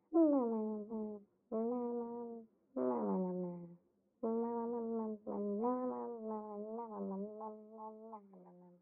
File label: Mumbling